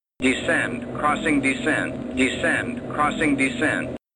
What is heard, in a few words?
Male Descend Crossing Descend